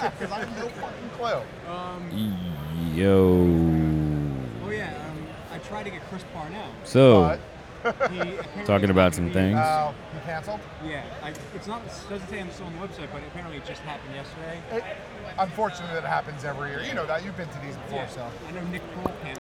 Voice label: Male Voice; NYCC 2013